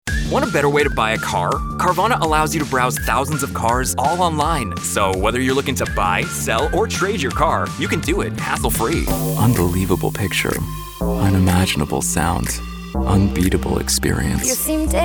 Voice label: Commercial; TaDa